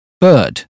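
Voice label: "bird", British English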